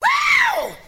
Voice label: "wow" fake; ooh ahh